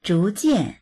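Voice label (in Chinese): "zhu2jian4", Mandarin Chinese; 逐渐; しだいに、だんだんと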